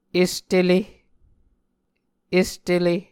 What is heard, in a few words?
"est ele", Muscogee